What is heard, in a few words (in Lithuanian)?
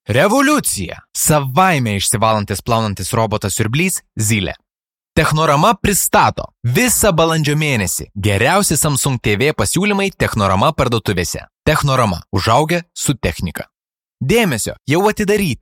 balsai lietuviu vyras